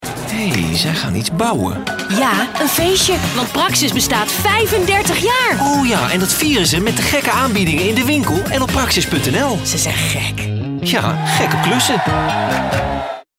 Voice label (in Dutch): enthousiast, stem voice-over; Stemkleuren